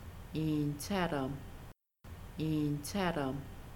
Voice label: Have you eaten, Kaska; Making Bannock

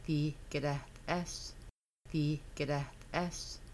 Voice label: They will fry chicken, Kaska; Making Bannock

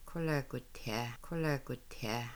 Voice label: That sounds good, Kaska; Log Cabin

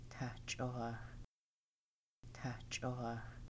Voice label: red winged blackbird, Kaska

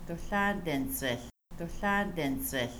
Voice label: Dont yell, Kaska; Morning

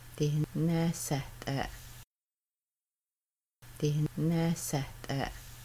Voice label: You fried chickens, Kaska; Making Bannock

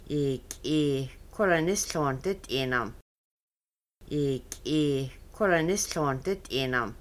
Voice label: Does he have enough birch wood, Kaska; rummaking

